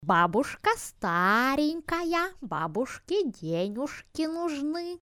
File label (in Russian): babushka